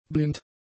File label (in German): "blind", German; adjectives